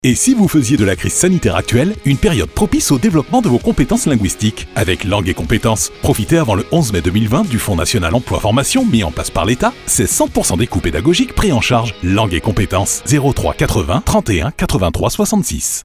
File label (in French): spot; LANGUES ET COMPETENCES DIJON